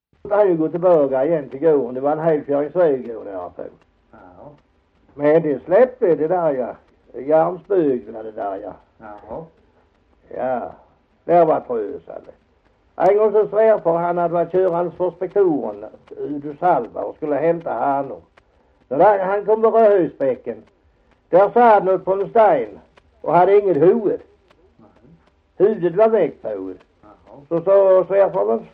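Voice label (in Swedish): Hasslöv Halland, dialekter; Folkminnen; Svenska sagor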